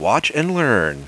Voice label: HAPPY OPPONENTS DID POORLY, watch and learn; Spades Deluxe

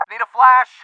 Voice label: flash, English

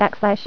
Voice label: "Backslash", female voice; TalkingKeys

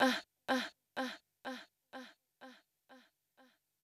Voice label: VOICE GRL HOUSE 125 A

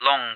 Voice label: "long", English; CoDriver

